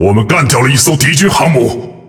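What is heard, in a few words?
Speech Destroy Enemy Aircarrier, Chinese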